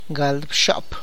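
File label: Tlacochahuaya Zapotec; twenty-six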